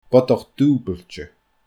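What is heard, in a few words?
"bodach duubailte", Scottish Gaelic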